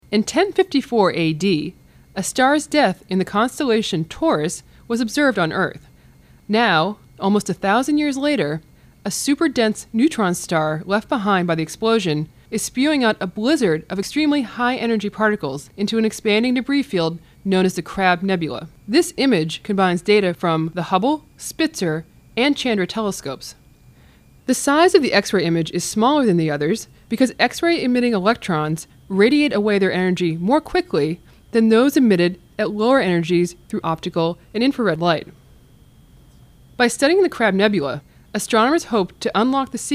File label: narration; crab